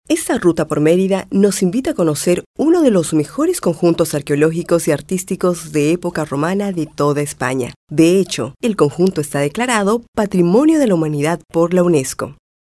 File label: bolivian voiceover locutora boliviana